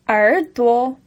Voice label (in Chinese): "a er3duo", Chinese